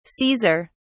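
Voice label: "caesar", British English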